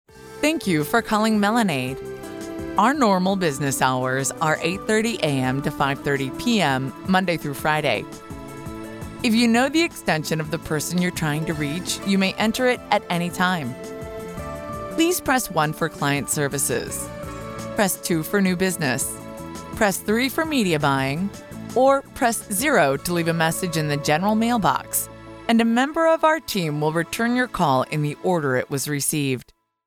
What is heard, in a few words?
ivr